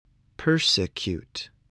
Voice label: "persecute", voice, English